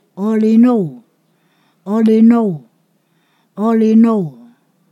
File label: "shadow", Ponosakan